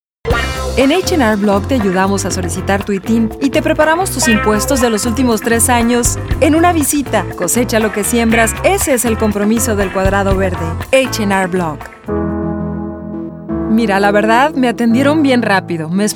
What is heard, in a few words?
Spanish, females